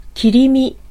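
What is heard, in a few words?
Japanese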